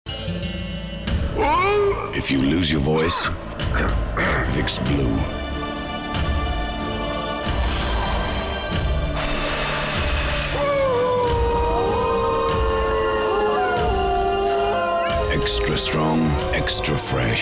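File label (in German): British englisch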